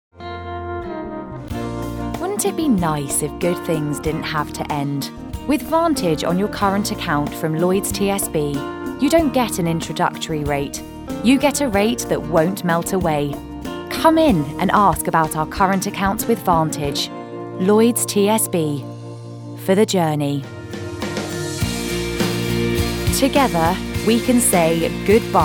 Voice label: English